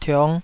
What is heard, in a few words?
"tiong5", Taiwanese